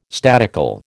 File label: "statical", American